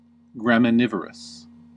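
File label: "graminivorous", US English; pronunciations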